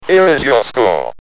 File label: Here is Your Score, Speak & Spell UK